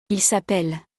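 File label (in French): "il s appelle", French; conjugaison; le verbe s'appeler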